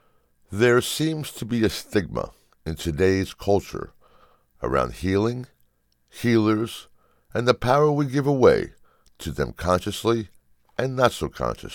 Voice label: Audiobook; Highway to Healing

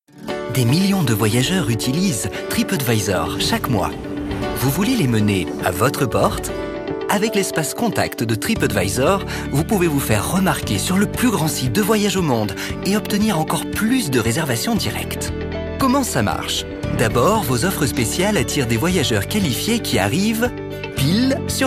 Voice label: ANIMATED EXPLAINER VIDEO; Trip Advisor

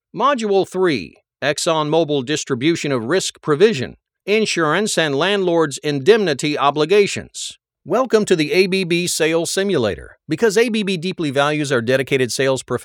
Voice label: Elearning and Training

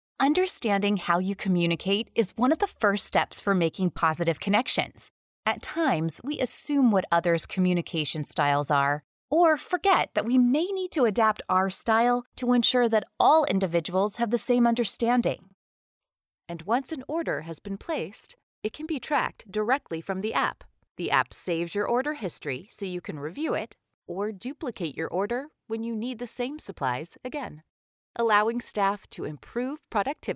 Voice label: ELEARNING, warm, authentic, caring Female Voice

